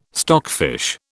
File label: "stockfish", British